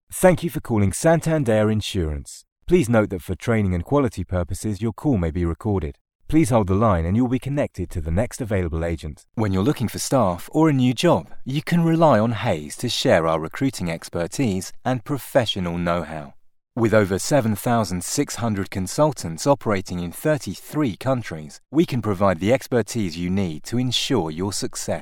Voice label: Telephone

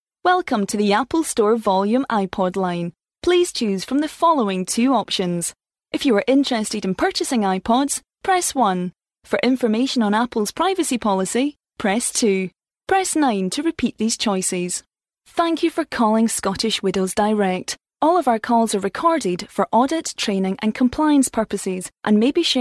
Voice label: telephone

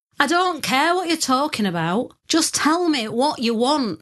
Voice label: northern accent

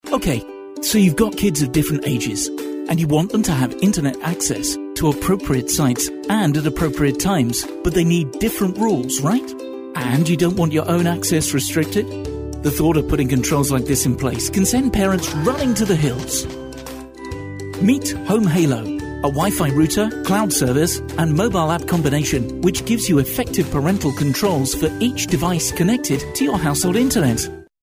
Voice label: Friendly

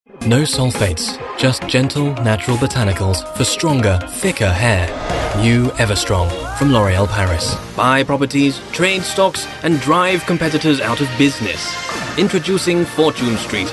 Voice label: Commercial

